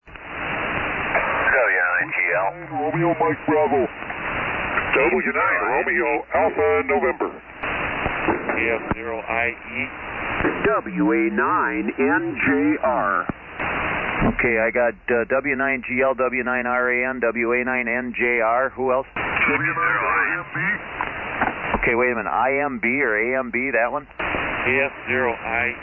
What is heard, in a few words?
SSB Net Late Check Ins